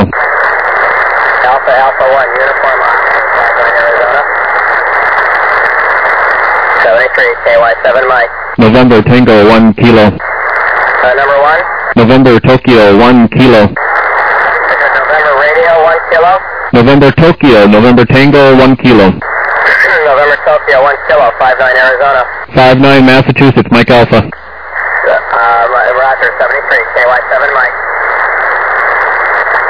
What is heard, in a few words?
USB 28MHz; ARRL10M